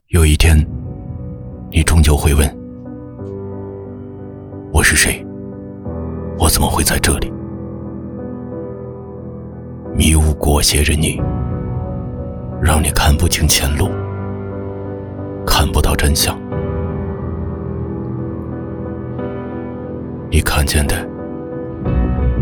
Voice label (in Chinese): 男 走心